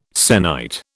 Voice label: "sennight", British